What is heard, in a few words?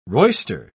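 "roister", British English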